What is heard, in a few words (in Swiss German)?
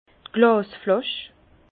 "glasflasch", Bas Rhin; vin; bouteille